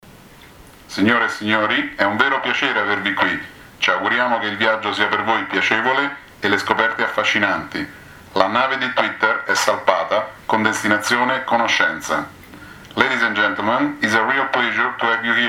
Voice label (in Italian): messaggio di benvenuto; twitter